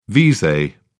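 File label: "vise", English, British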